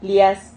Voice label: "lias", Silabas

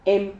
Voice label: "hem", Silabas

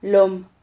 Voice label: "lom", Silabas

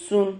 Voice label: "zun", Silabas

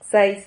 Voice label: "xais", Silabas

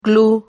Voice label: "glu", Silabas

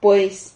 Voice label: "pueis", Silabas

